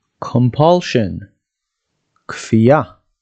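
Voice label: "compulsion" English, "כפייה" Hebrew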